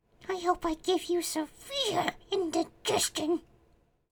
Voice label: Voices Female Beggings